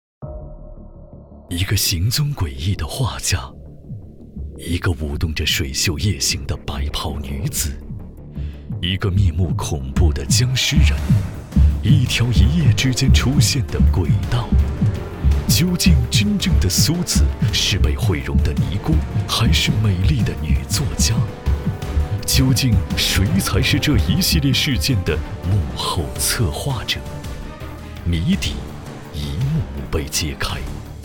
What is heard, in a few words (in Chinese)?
多媒体 有声读物 鬼故事小说, 男国